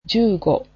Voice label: "fifteen", japanese